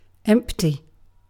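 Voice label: "empty", British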